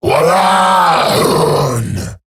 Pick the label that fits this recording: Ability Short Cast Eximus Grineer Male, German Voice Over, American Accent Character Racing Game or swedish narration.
Ability Short Cast Eximus Grineer Male